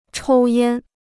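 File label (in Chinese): "抽烟" Chinese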